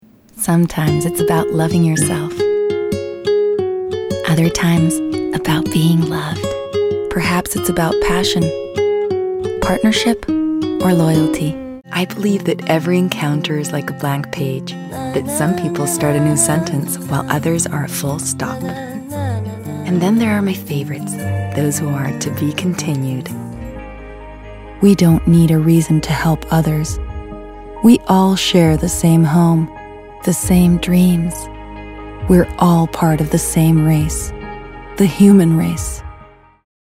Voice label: Cálida EN